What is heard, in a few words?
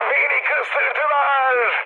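Turkish aircraft destroyed, mood high, speech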